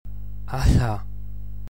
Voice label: devoiced slender l, gaelic